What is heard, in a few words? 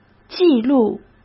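"记录", Mandarin Chinese; hsk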